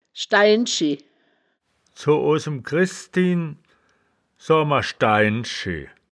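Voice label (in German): "schdein-schi", wittlicher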